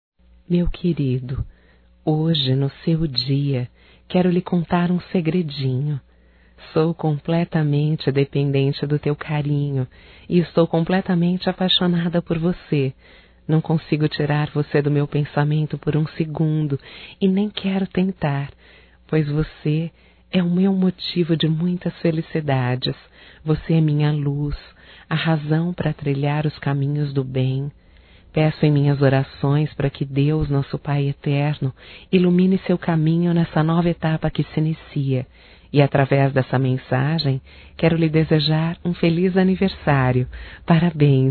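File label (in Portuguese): romântico female, Telemensagens Aniversarios; Telemensagens 2017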